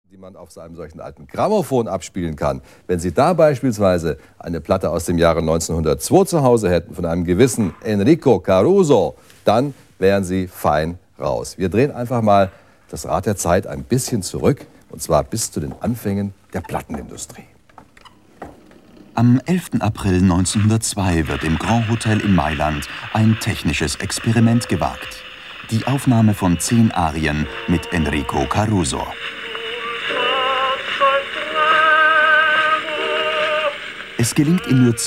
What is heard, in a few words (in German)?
kommentar; ARD; Caruso